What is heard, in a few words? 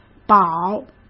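"饱", Mandarin Chinese; hsk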